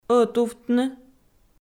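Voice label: "ӧтувтны", Komi, female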